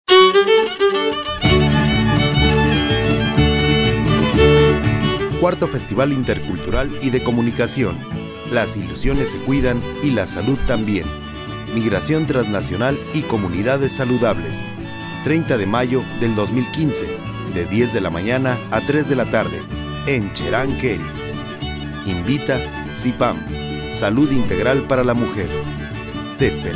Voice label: promo